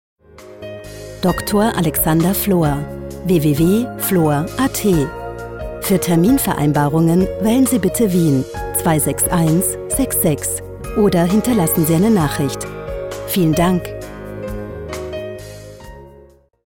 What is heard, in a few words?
answering machines scripts